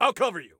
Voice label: Self Task Cover, Soldier Male; Soldier Male 02

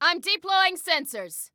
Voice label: Self Task Deploy Sensors, Female

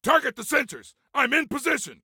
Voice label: Target Sensors, Soldier Male; Soldier Male 03

